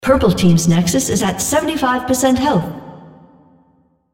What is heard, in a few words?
Structure Damage, en US; Odin